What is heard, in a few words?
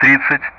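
Speech Sound Russian; DCS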